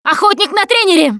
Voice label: hunter coach pounced, russian, Survivor Voice Producer